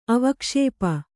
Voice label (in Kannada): "ಅವಕ್ಷೇಪ", kannada